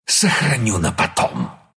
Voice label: bottle, ru; Lycan

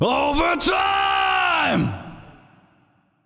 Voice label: Announcer Match Overtime; Feyrazzle

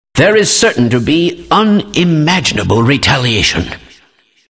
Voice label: announcer; Dota 2; kleiner announcer

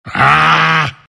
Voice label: anger; omniknight

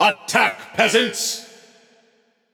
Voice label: Announcer Match Role Attack; BombKing

